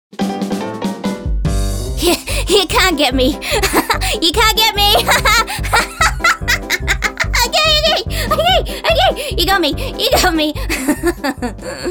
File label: Character